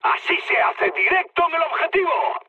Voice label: bomb success, mood high, speech; War Thunder

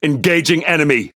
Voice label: Speech Pilots Torpedo Engage, EN GB; World Of Warships

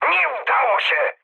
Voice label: bomb failure mood high, speech pol; War Thunder

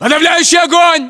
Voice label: order action suppress, english

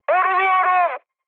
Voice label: aircraft damaged mood high, speech; War Thunder

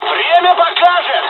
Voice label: dialog, dialogs russian; us midway; War Thunder

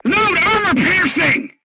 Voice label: voice message load AP, crew tanks vietnamese

